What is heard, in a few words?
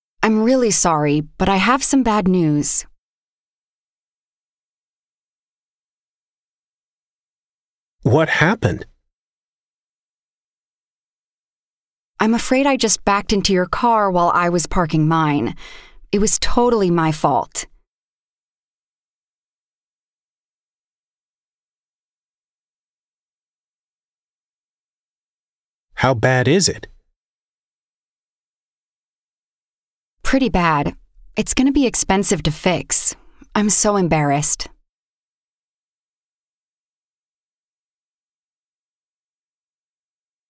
PRONUNCIATION PRACTICE; Connectivity 5